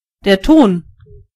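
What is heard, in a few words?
clay, German voices words; gcompris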